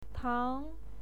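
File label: "tang", Mandarin Chinese, second tone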